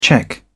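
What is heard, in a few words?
"cheque", British English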